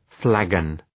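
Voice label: "flagon", English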